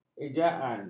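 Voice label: "ejaan", Malay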